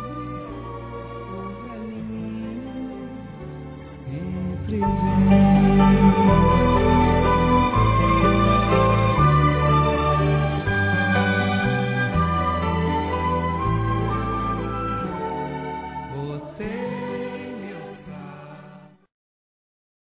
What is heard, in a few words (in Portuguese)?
Natal Para Avó Masculino; Telemensagens; Natal e Ano novo